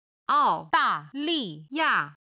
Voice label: "ao4 da4 li4 ya4", Chinese